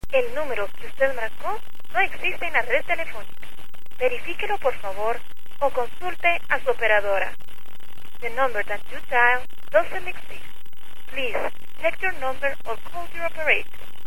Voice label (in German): Kein Anschluss, guatemala